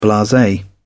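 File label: "blase", British English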